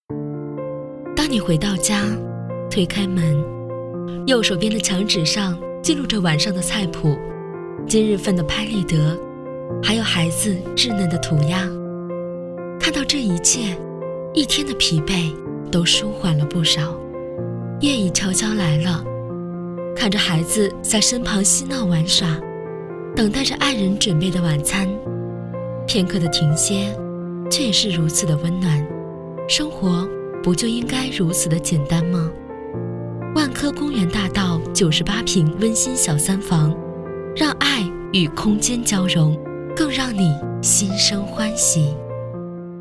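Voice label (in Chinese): 广告温馨优雅房产; 万科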